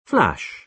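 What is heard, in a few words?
"flash", British English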